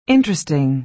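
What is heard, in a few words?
"interesting", American English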